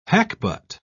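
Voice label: "hackbut", British English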